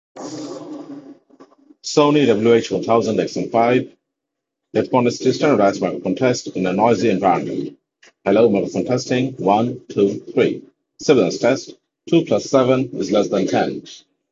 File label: noisy; Sony WF 1000XM5